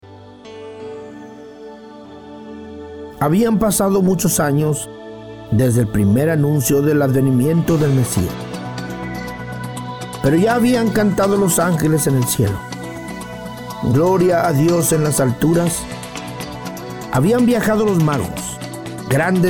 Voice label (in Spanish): Narracion final con musica; Drama Navideno 2013